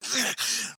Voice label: hurt